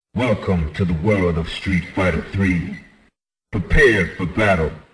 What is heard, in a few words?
announcer; Sf3